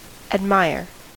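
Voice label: "admire", American English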